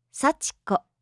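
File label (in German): "Sachiko", japanische Mädchennamen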